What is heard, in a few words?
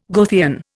"Goethean", Indian